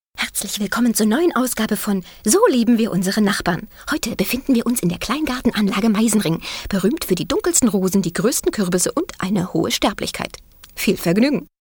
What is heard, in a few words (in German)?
trick schnell